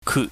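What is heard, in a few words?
"kut", Cantonese, mid level tone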